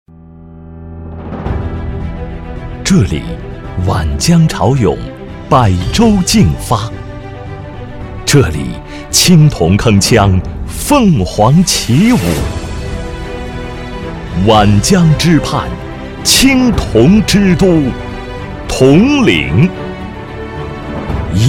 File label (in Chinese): 城市 宣传; 铜陵